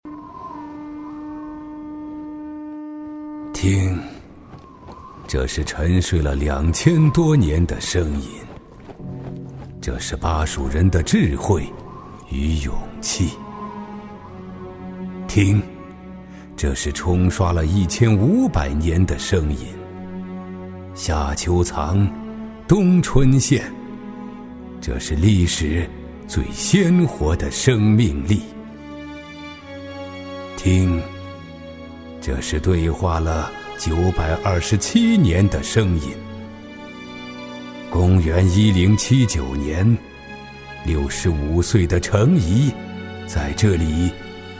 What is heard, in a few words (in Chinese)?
男 演绎 片花 情绪饱满 走心; 中英双语 大气浑厚; 天问川渝春晚